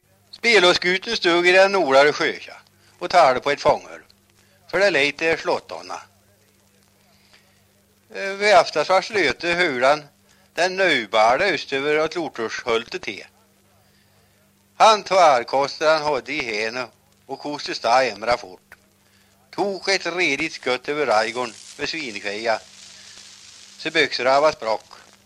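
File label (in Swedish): Köla Värmland, dialekter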